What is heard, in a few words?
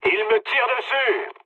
aircraft damaged mood low, speech fr; War Thunder